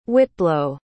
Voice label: "whitblow", pronounce